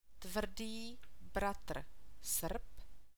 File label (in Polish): r zgloskotworcze przyklady, czeski alfabet